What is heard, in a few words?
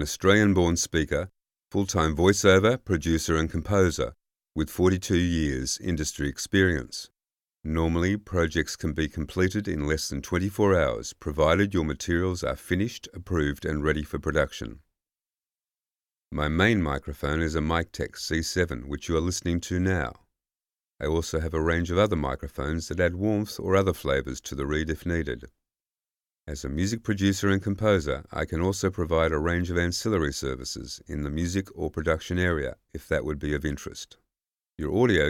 studio sample